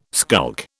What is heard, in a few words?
"skulk", British